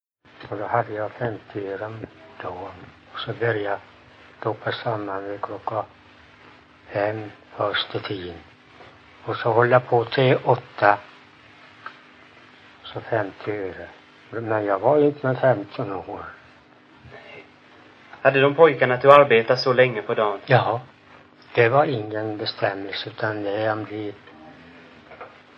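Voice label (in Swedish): Ingatorp Småland, dialekter; Folkminnen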